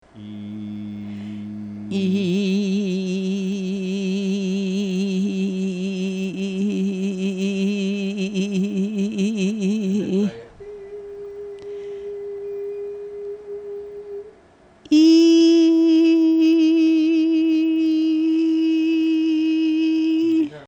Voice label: Tremor